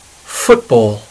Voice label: "football", English

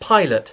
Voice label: "pilot", English

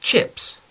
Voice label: "chips", English

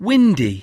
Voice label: "windy", English

